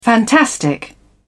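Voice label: "fantastic", British English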